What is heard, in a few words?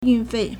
"yun4fei4", Chinese